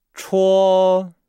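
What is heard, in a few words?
"chuo", Chinese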